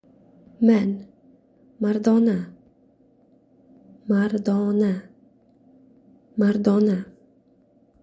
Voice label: Men, tajik